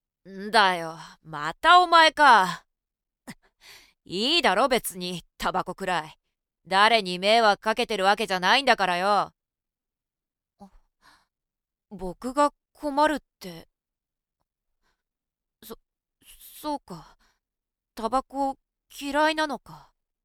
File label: female